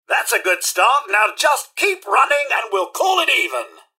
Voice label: generic robot combat to lost; mrhandy; npc